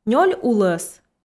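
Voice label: "нёль улӧс", Komi, female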